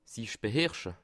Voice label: "beherrsche", alsace

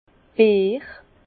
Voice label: "beer", Bas Rhin; vin

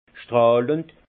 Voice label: "strahlend", Alsace; vin; scintillant

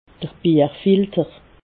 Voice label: "bierfilter", alsace

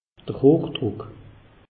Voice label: "hochdruck", alsace; meteo

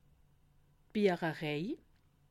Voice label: "biecherreih", alsace

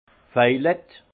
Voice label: "vejelett", alsace; vin; violet

